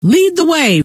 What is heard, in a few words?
"lead the way", scientist female